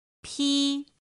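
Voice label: "pī", Mandarin Chinese pinyin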